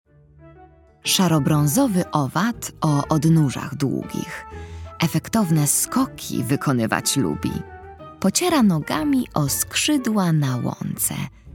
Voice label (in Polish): narracja; czasopismo mis; wiosna; zagadka